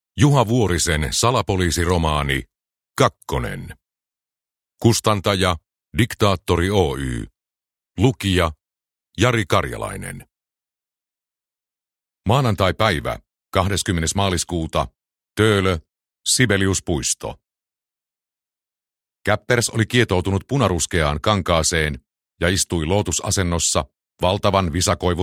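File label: Finnish Audiobook Male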